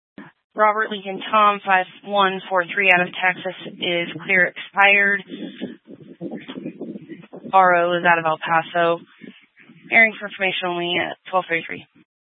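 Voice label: Incident Tactical 3, Scanner audio recording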